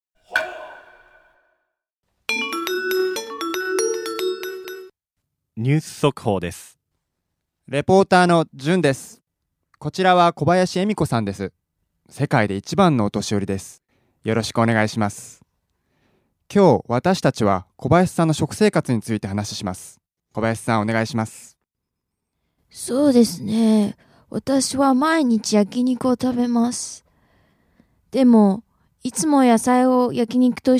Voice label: dialog; JapanesePod101; Beginner Season 1